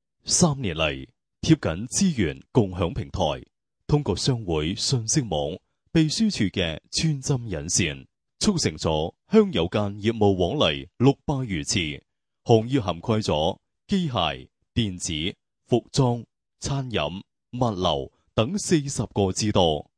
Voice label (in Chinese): 粤语男 网商平台专题